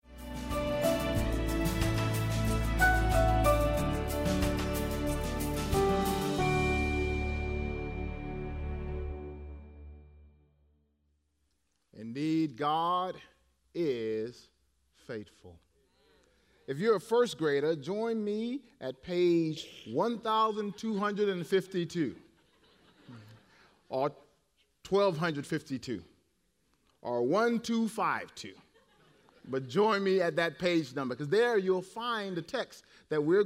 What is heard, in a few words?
English Sermon